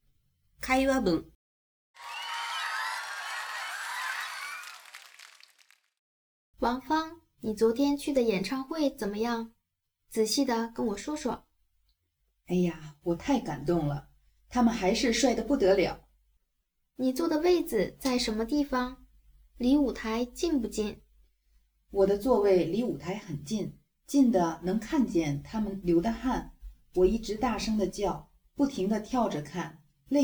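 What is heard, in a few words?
conversation; 会話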